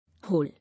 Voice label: "Whole", English